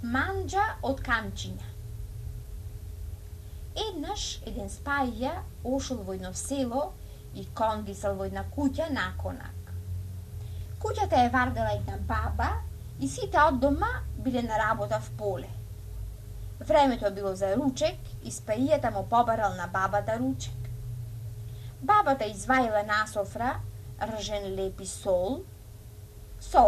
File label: Prilep-Bitola dialect speech Bitola